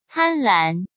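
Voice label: "贪婪", Mandarin Chinese; hsk; chinese-flashcards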